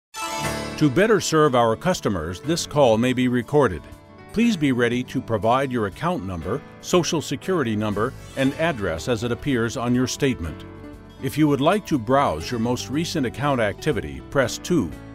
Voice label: Telephone Automation